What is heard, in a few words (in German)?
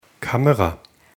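"Kamera", German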